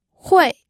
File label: "hui4", pinyin audio